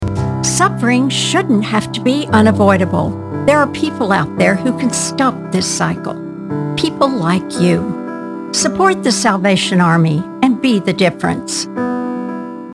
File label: Commercial Compassionate Believable